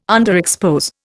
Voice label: "underexpose", Indian

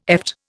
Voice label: "eft", Indian

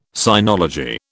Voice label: "sinology", British

